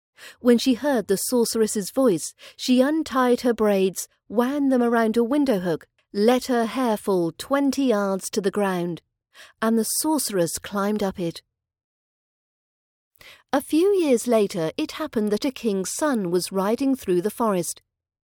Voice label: Rapunzel fairytale part 2 RP British accent practice audio